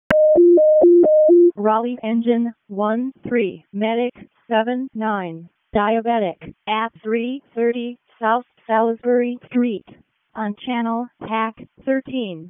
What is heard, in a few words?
EMS ALERT